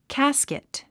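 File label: "casket", English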